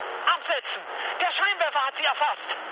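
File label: German searchlight mood med, speech; War Thunder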